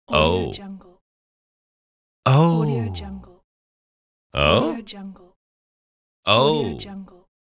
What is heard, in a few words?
male voice oh